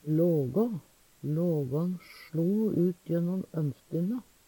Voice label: "lågå", Numedalsmål; Substantiv hankjønn; Planteriket

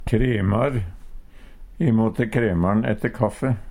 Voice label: "kremar", Numedalsmål; Substantiv hankjønn

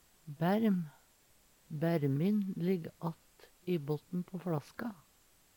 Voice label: "bærm", Numedalsmål; Hushald, mat, drikke

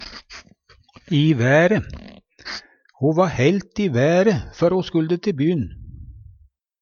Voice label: i vaere, Numedalsmål; ivrig, begeistra; Ho va heilt i være, fø ho skulde te by'n.

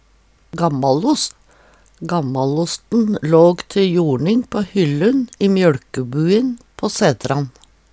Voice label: "gammalost", Numedalsmål

